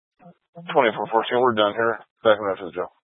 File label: Sheriff Dispatch, Scanner audio recording